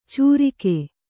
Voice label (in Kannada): "ಚೂರಿಕೆ", kannada